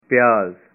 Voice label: onions, punjabi